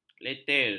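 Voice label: "leter", Malay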